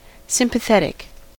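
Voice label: "sympathetic", US English; pronunciations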